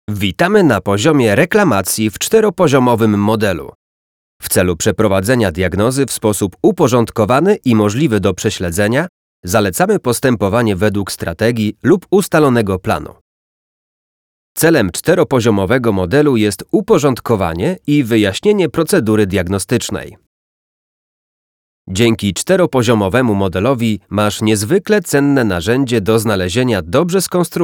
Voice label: elearning